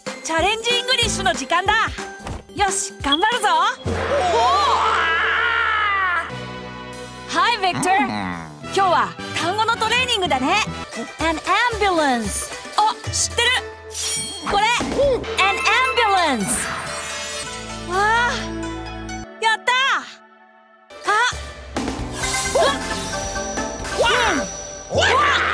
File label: Young boy Bilingual